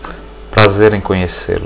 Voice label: nice to meet, portuguese